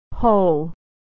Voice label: "whole", pronunciation

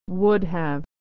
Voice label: "would have", pronunciation

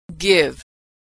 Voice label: verb "give", pronunciation; Consonant Sound Pair

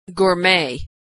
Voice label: "gourmet", pronunciation

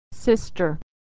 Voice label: "sister", pronunciation

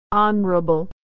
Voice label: "honorable", pronunciation; Consonant Sound

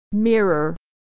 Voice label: "mirror", pronunciation

